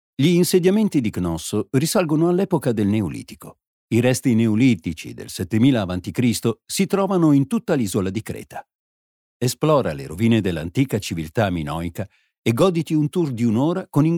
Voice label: italian documentaries